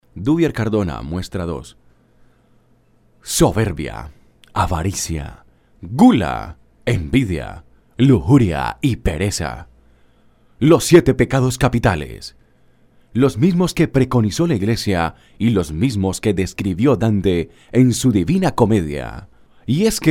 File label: locutor colombiano colombian voiceover